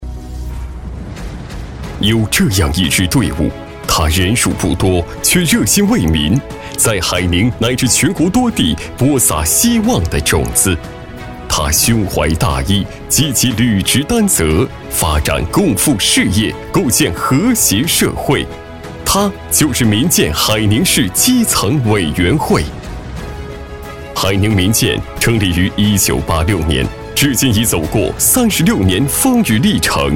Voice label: 男 宣传; 民建宣传

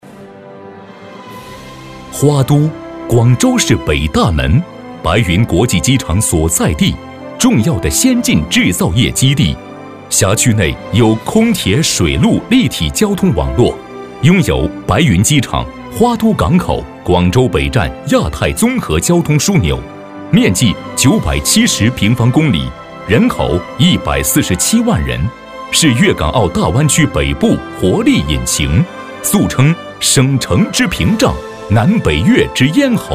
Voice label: 男, 宣传; 广州花都

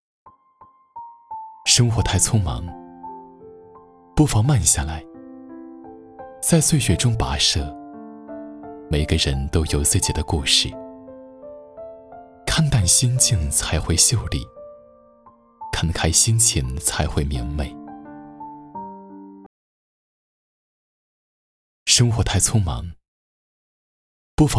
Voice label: 男 旁白

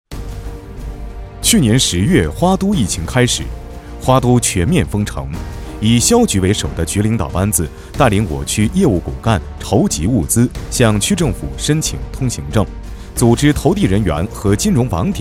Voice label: 男 宣传片新闻风; 跨越花都

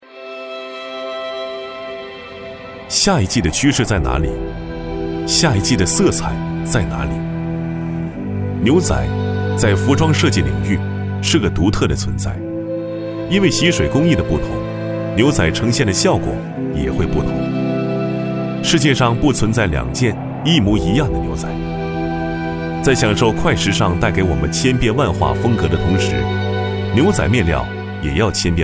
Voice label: 男 高级素人感; 博域牛仔面料

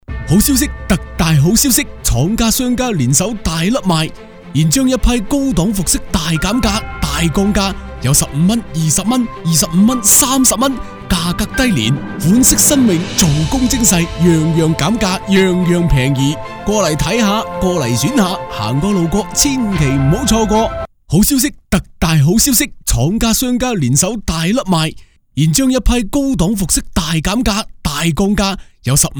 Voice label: 促销, 粤男

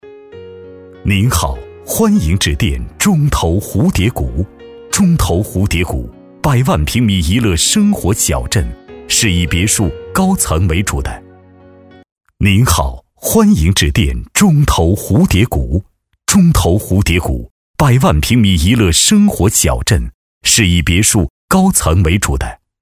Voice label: 男【彩铃】; 蝴蝶谷

